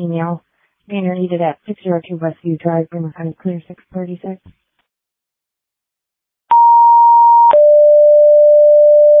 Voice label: Ambulance, dispatch